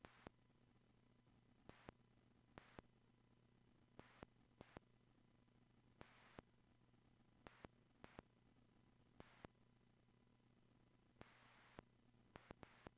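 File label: P25 dispatch radio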